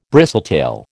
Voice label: "bristletail", American